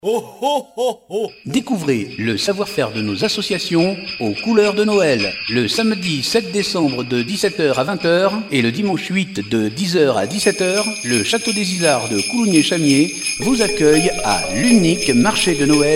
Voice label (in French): Annonce sonore Marche Noel; coulounieix chamiers